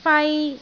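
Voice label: "fai1", Cantonese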